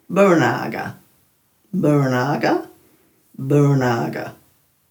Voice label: "burnaaga", Dhurga

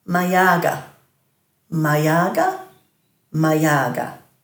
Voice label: "mayaaga", Dhurga

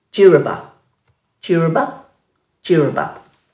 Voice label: "djiriba", Dhurga

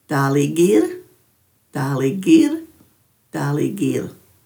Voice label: "dhaaligir", Dhurga; toy canoe

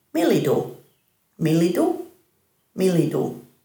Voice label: "milidhu", Dhurga

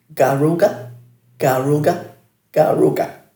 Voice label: "garuga", Dhurga

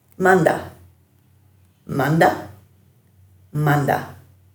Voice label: "mandha", Dhurga

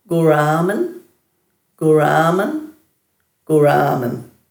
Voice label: "guraaman", Dhurga

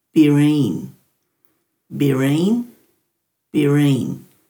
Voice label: "biriin", Dhurga

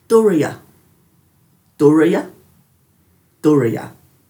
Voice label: "duraya", Dhurga; dulagaal

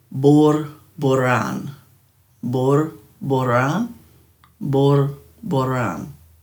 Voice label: "burrburran", Dhurga; quail

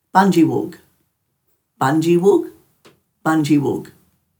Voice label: "bandjiwug", Dhurga

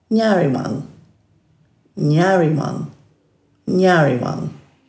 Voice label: "njariwang", Dhurga

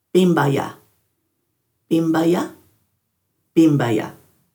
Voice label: "bimbaya", Dhurga

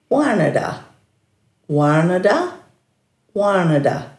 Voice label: "warnadha", Dhurga; dulagaal

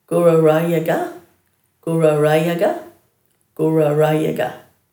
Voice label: "gurarayga", Dhurga

